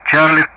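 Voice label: Speech Sound Russian Common Player Alphabet; Charlie-begin; DCS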